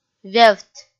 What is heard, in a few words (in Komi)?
"вевт" kpv f